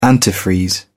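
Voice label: "antifreeze", British English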